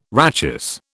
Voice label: "rachis", British

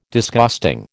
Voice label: "Disgusting", American

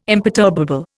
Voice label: "imperturbable", Indian

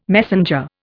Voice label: "messenger", Indian

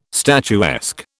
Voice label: "statuesque", British

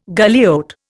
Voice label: "galliot", Indian

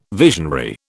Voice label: "visionary", British

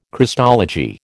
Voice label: "Christology", American

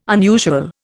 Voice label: "unusual", Indian

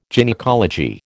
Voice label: "gynaecology", American

